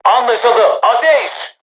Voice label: voice message art shoot, crew tanks turkish

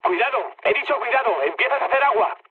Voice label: damaged water, mood med, speech; War Thunder

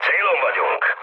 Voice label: near waypoint, mood low, speech hun; War Thunder

